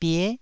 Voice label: "bie9", taiwanese

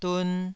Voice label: "dun2", Taiwanese

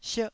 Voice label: "sierh4", Taiwanese syllable

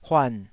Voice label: "huan3", taiwanese

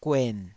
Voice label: "guen3", taiwanese

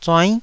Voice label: "zuainn9", taiwanese

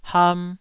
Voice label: "ham2", Taiwanese syllable

